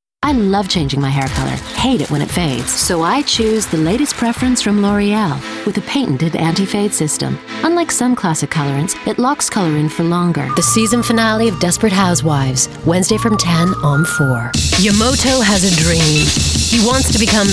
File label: Commercial